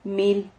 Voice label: "mil", Silabas

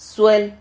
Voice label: "suel", silabas

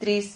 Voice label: "triz", Silabas

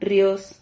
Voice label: "rrios", Silabas

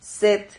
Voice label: "set", silabas

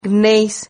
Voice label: "gneis", Silabas

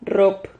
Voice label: "rrop", silabas

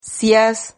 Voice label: "sias", Silabas

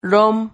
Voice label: "rom", Silabas

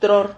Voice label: "tror", Silabas

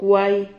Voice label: "cuai", Silabas

